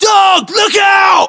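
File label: threat dog generic, British; localized english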